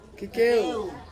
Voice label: "kikeu", Ansus; close